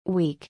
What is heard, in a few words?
"Week", English